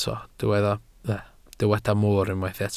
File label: "mor", Welsh